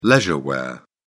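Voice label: "leisure wear", British English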